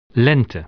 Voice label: "springtime", dutch